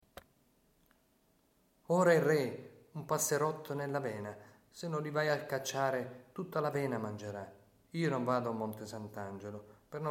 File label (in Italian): recitato Tarantella; San Nicandro